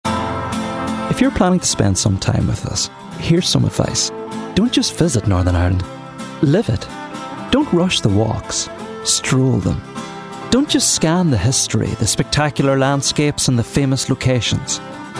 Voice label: Commercial Voicereel

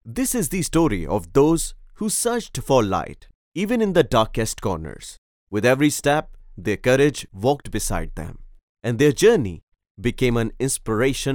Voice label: English Documentary Narration

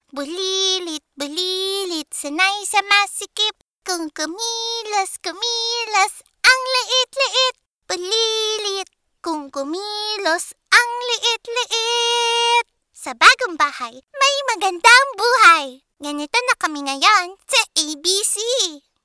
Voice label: Commercial Tagalog

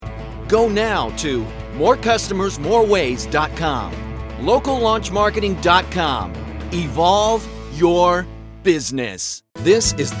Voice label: Podcast

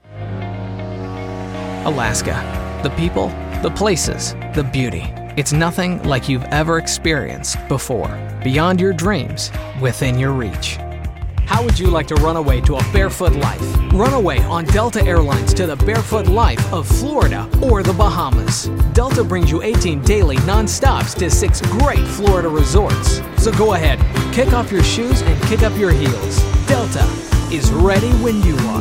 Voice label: Commercial Voice Over

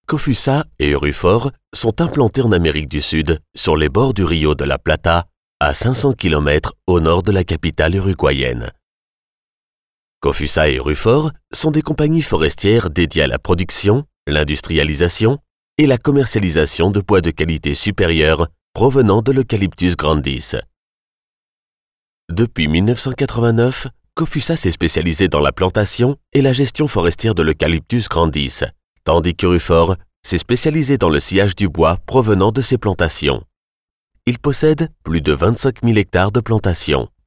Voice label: docu; rousseau

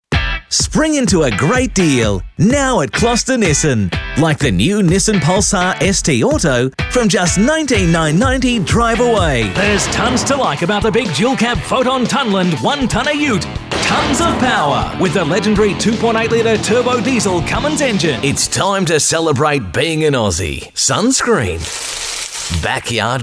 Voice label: Radio

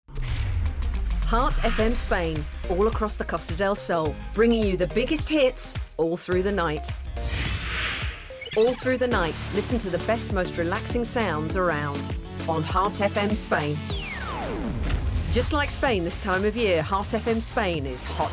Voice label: Radio Ident

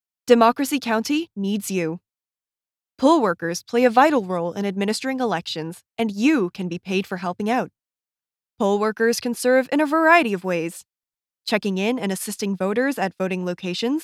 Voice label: PSA; Poll Workers

